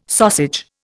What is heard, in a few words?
"sausage", Indian